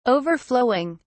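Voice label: "overflowing", British English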